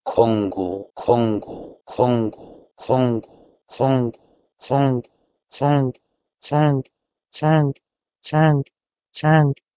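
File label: Proto-Indo-European keh3Ngo to Persian chang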